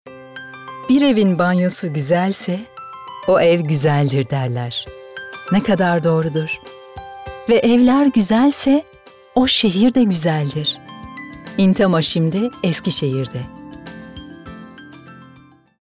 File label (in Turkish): Romantik